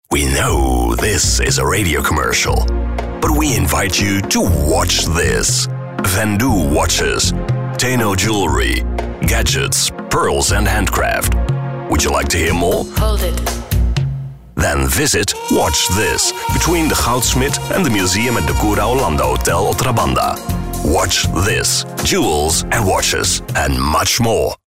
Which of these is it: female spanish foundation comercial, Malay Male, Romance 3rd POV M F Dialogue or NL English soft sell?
NL English soft sell